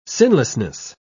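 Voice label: "sinlessness", British English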